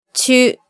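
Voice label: "chu", Japanese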